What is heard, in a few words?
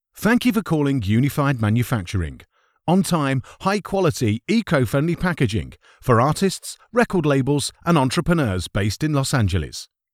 english british ivr